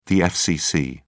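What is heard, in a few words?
"fcc", British English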